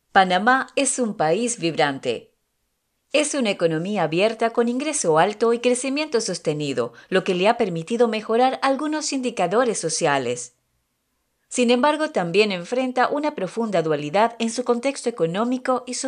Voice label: 西班牙语女 外语 小语种

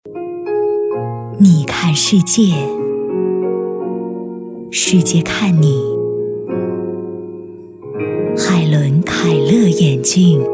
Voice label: 广告 品牌形象 眼镜 磁性; 海伦凯勒眼镜

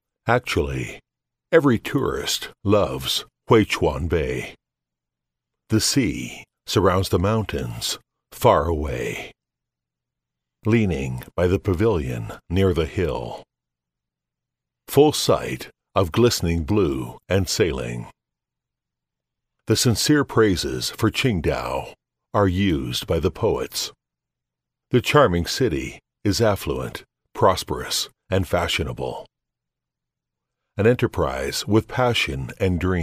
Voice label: 外籍男英 外语 美式英语 自述风格

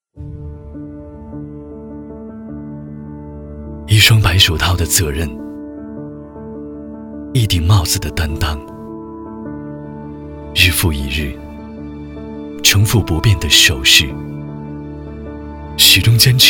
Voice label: 广告 食品饮料 年轻, 男国; 王老吉

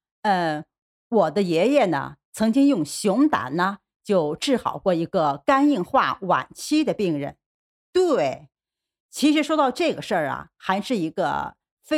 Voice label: 动画 角色 女专家

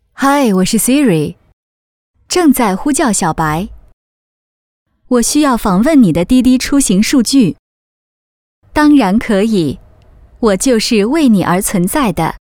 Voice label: 动画 游戏 游戏音 siri

